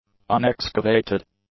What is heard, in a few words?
"unexcavated", english; adjectives